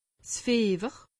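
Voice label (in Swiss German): "fiewer", Alsatian; medecine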